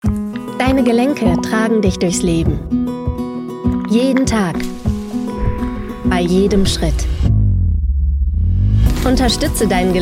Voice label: Commercial, Werbung, German; voice; Werbung I Orthomol Chondroplus 2025